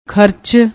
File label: "kharach", Punjabi; ਖਰਚ